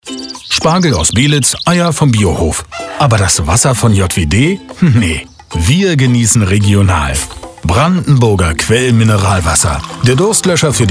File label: Radio Spot BBQ